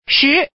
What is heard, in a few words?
Woman